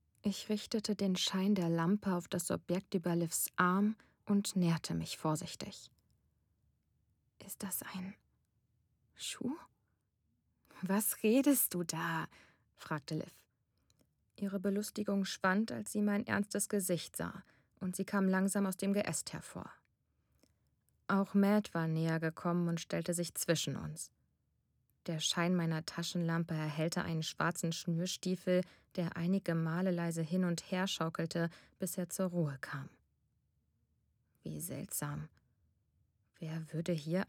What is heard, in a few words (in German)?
Krimi, stimmen weiblich